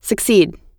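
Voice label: "succeed", American English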